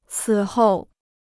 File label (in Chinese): "此后", Chinese